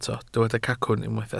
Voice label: "cacwn", Welsh

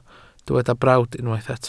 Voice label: "brawd", Welsh

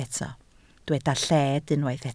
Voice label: "lled", Welsh

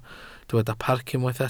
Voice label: "parc", Welsh

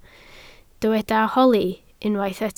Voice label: "holi", Welsh

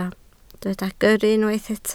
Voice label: "gyrru", Welsh

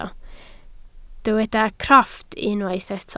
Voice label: "crofft", welsh

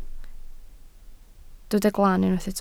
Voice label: "glan", Welsh

